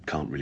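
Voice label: "caaQ really", Standard Southern British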